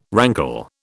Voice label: "rankle", British

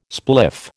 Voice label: "spliff", American

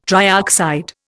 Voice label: "trioxide", Indian

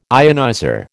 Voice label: "ionizer", American

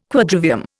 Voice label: "Quadrivium", Indian

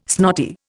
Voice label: "snotty", Indian

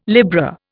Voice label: "Libra", Indian

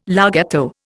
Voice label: "larghetto", Indian

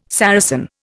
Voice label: "Saracen", Indian

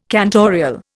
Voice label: "cantorial", Indian

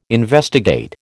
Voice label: "investigate", American

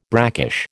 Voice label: "brackish", American

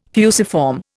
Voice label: "fusiform", Indian

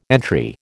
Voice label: "entry", American

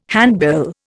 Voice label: "handbill", Indian

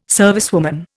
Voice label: "servicewoman", Indian

